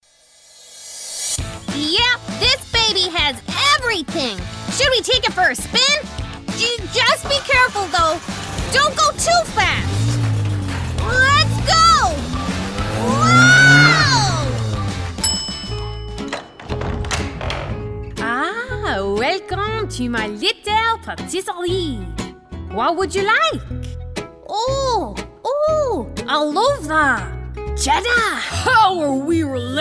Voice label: Animation; 20s-30s; Male; Irish

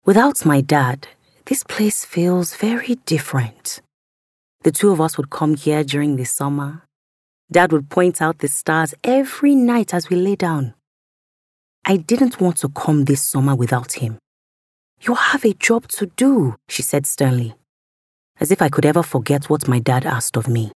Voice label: AUDIOBOOK

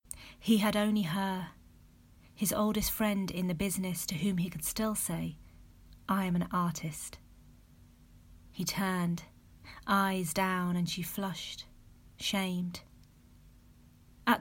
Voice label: Audiobook

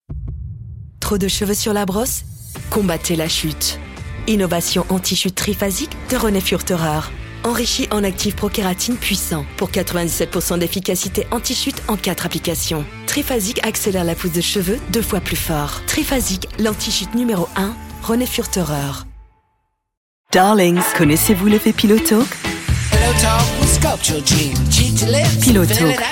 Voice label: French, Female, 20s-40s, voiceover; European

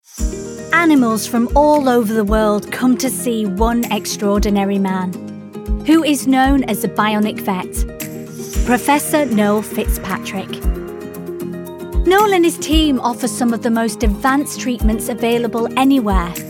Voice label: Documentry reel

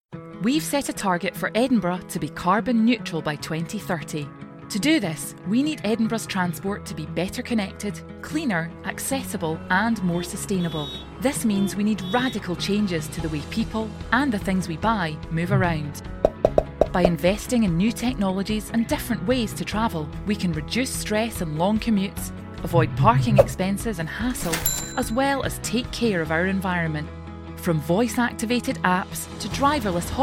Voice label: Corporate

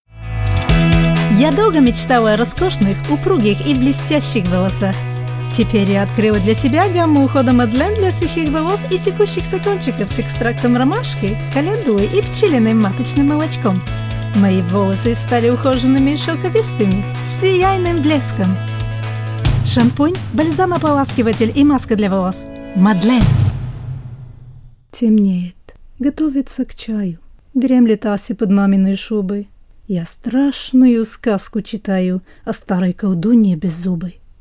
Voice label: Russian

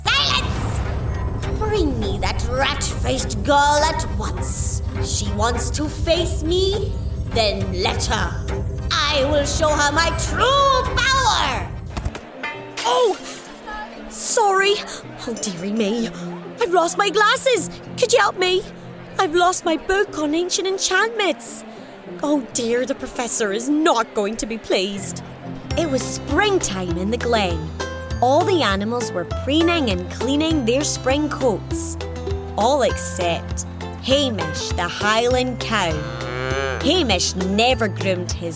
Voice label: Animation

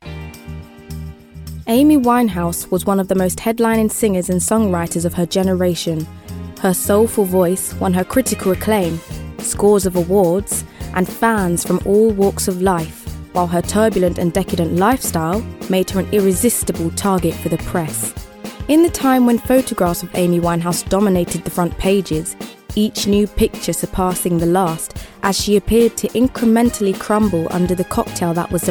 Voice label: Narration

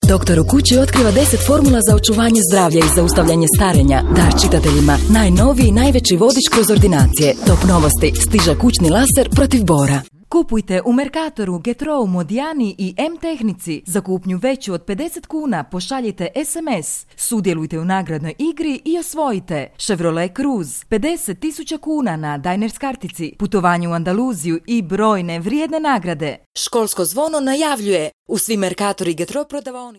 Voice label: Serb Croat, Croatian, Female, 30s-40s; Eastern European

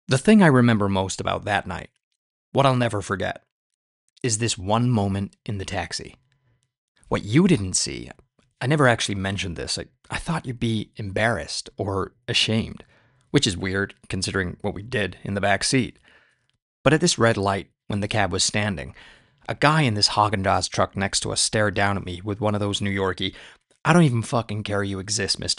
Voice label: Audiobook; home studios

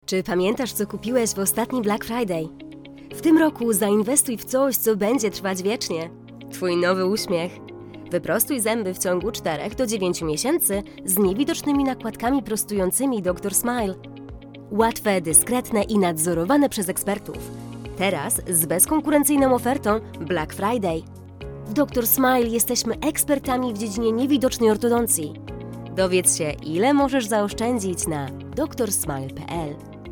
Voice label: polish

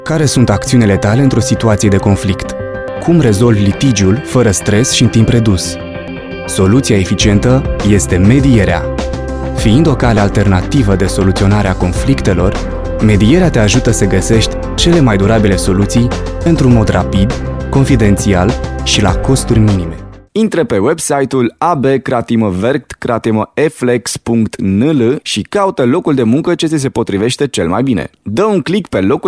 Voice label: Romanian, Male, Voiceover, 20s-40s; Eastern European; Based in Romania

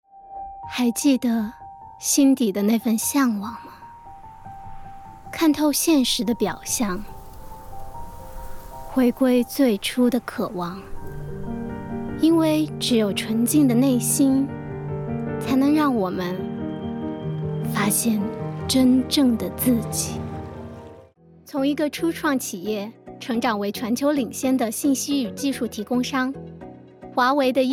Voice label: Mandarin

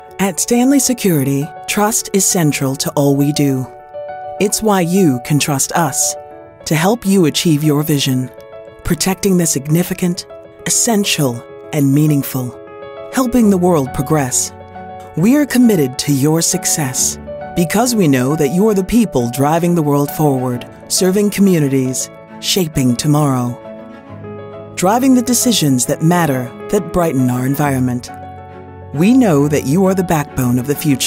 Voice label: corporate

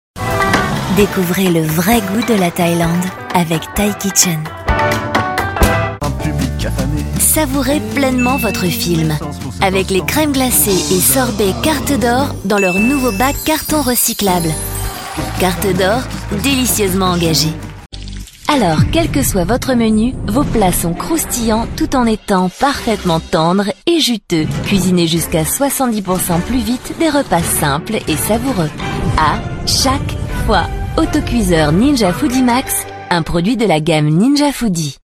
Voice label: French, Female, 20s-40s, voiceover; European

